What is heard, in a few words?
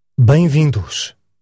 welcome, portuguese